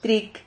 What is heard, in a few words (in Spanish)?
"tric", Silabas